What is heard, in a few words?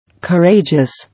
"Courageous" English